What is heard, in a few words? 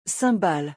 "cymbales", French; vocabulary; musical instruments